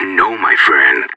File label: negative, radio; cstrike